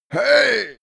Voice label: male old hey

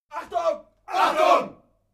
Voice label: military shout german attention

